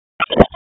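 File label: Raleigh Police North District Tactical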